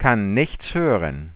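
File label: can't hear anything, German; sgh-d720; AnyVoice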